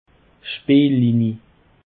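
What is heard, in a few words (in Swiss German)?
"speellinie", Alsatian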